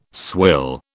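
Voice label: "swill", British English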